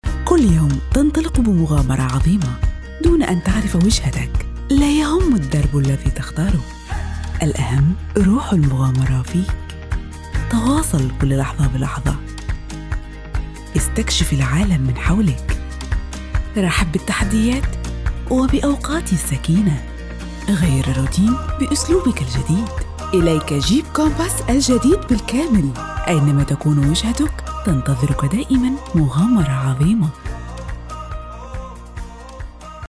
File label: Arabic IVR On Hold Voicemail Greetings